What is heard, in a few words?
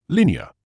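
"linear", British English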